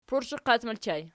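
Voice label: "pursheqat" ergative plural, Archi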